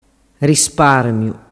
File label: "risparmiu", dialettu